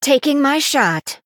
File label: Female Human Attack